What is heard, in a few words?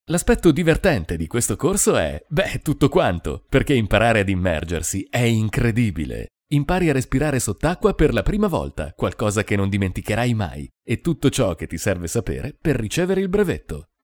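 Italian male voice over, upbeat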